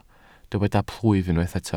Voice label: "plwyf", Welsh